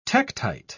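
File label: "tektite", British English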